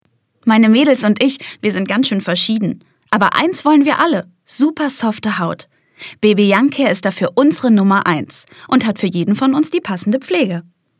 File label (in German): Werbung